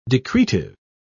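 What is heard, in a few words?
"decretive", British English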